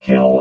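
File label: "kill", robot voice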